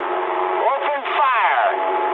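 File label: English attack position mood med; speech; War Thunder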